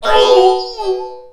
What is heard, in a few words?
Male Die Robot; Mars Sortie